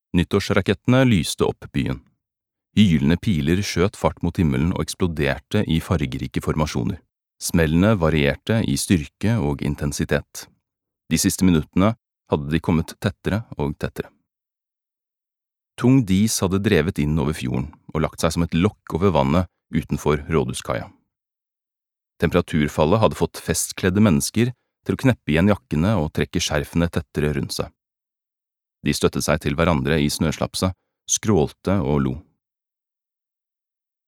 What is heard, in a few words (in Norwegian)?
no lydbok